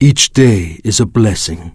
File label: Draenei Male Greeting